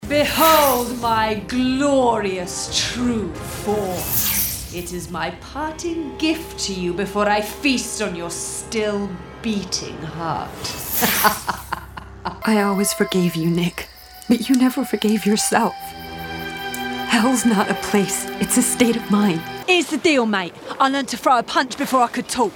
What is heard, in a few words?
animation women video game